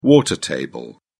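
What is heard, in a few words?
"water table", British English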